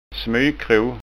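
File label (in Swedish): "smykro", Scanian dialect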